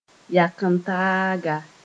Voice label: "yak-n-taaga", Moore